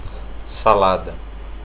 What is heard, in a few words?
salad, portuguese